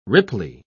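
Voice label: "ripply", British English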